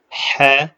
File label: short voyels arabic alphabet